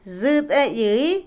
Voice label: Otomí, mujer; fumar; INALI

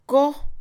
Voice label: edificar, Mixe, Mujer; Acciones; INALI

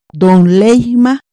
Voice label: Tengo hambre, Chontal

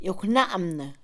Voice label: ruidoso, Mixe, Mujer; Percepción; INALI

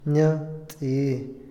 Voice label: "hablar entre dientes", Otomí, hombre; INALI

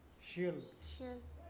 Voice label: "árbol madera", Huave

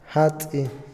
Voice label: "flotar", Otomí, hombre; Movimiento; INALI

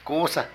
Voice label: Cazar, Zapoteco de Petapa; Vocabulary

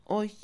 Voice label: bueno, Mixe, Mujer; Emociones; INALI

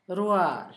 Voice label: boca, Zapoteco, Asunción Ocotlan; Cuerpo; INALI; Haspelmath

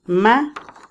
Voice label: huir, retirarse, Otomí, mujer; INALI

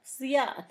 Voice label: cereza, Zapoteco, San Pedro Mártir; Comestibles; INALI

